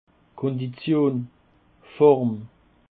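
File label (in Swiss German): "kondition", Alsatian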